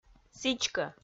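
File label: "сідзкӧ", Komi, female; audio dict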